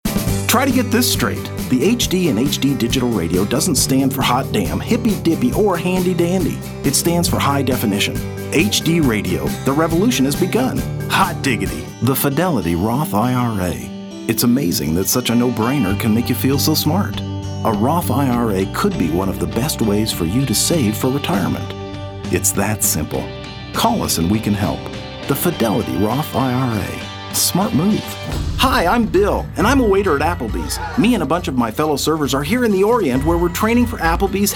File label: Commercial